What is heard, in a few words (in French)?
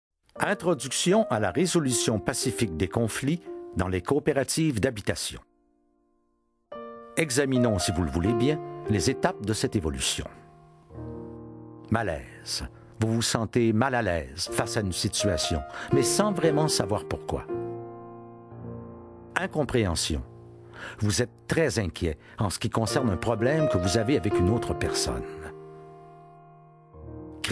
narration, voix hommes